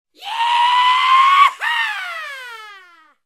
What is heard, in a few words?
vaquero yee haw cowboy yee haw